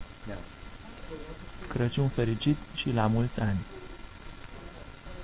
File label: Romanian, christmas